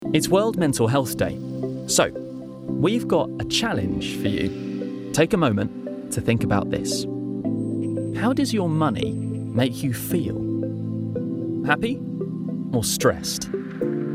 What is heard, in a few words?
male english commercial